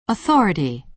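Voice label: "authority" American English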